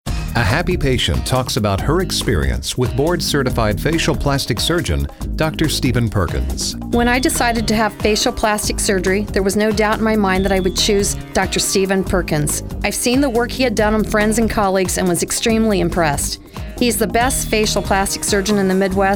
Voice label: female aging face Testimonial; radio spots testimonials